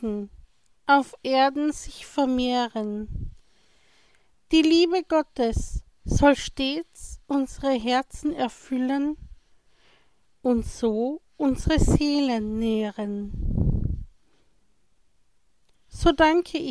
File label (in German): gebet gedicht an mutter maria